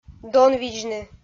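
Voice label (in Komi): "донвидзны", Komi, female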